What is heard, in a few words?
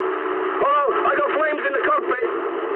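English on fire mood med; War Thunder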